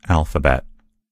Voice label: "alphabet", en US; gcompris